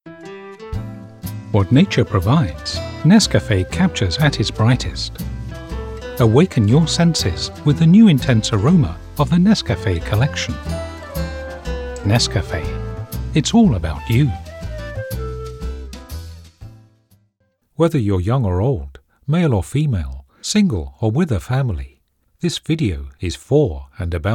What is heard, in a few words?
Calm, voiceovers